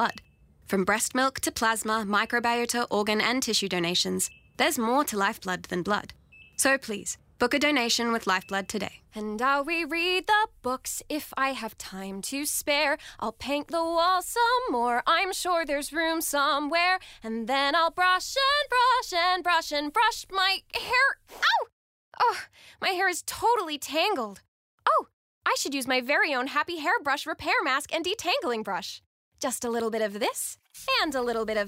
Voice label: Commercial Voice, female voice over artists australia